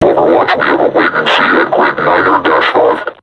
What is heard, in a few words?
taunt, vo combine suppressor; Half Life Alyx